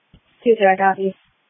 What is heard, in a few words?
Police Tactical Encrypted; sdr